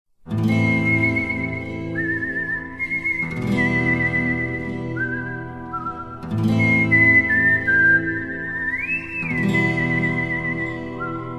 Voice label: background of speech